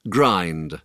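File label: "grind", English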